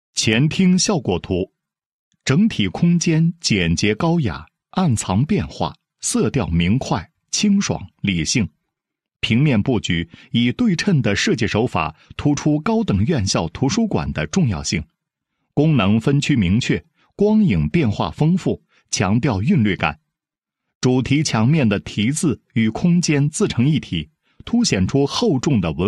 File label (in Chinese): 男 工程设计, 图书馆设计说明